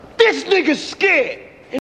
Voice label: scared